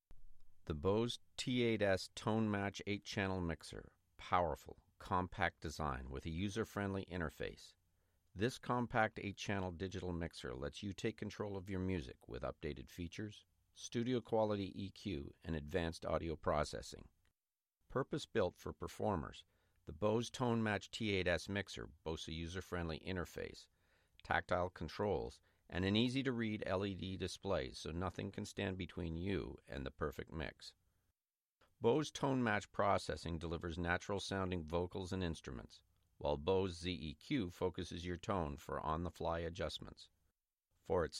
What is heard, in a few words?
Product Copy, Voiceover